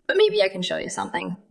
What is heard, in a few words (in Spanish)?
Voice Acting es